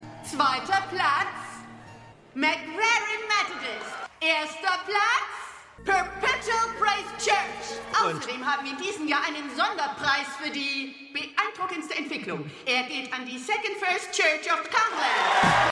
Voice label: Moderatorin; Perfect Harmony

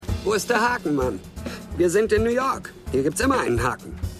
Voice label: Mann; New Amsterdam

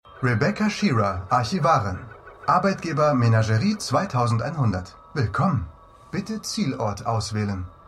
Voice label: Fahrzeugstimme; Extrapolations

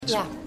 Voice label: Empfangsdame; The Good Fight

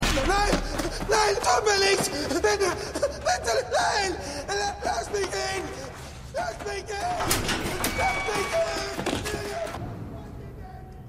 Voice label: Veraengstigter Gefangener, Stimmproben; Revolution

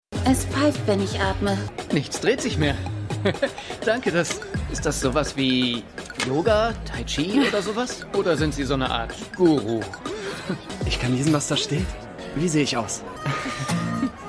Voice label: Jugendliche; AtlantaMedical

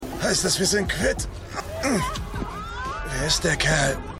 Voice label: Mann, Stimmproben

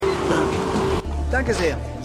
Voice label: Aelterer Mann Reporter; Shining Girls

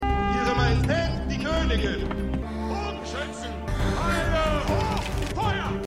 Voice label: Ausrufer Kommandant; See

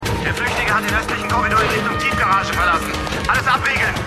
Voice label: Funkstimme

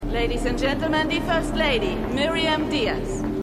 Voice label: Pressesprecherin

